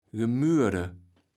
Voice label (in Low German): "Gemürde", Platt